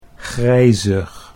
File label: "grijzig", Dutch; 'greyish'